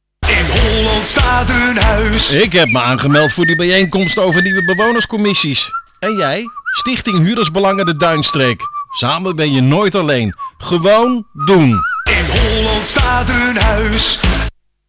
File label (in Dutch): Radiospot